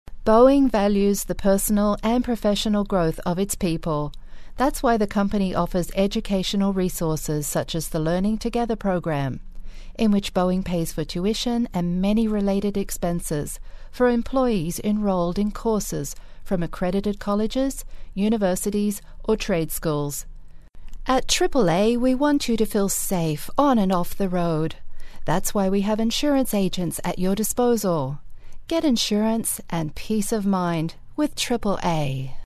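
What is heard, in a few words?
English Australian, female, corporate; accent of australia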